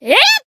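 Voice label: attack, Japanese; altena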